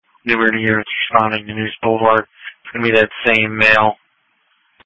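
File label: Police Patrol Dispatch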